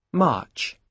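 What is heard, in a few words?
"march", British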